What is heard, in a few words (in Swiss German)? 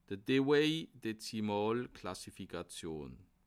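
"dewey", Alsatian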